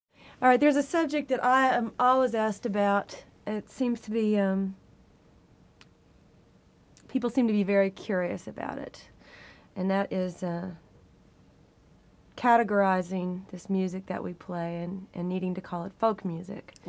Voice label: conversation